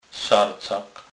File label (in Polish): "sarcak", kurpie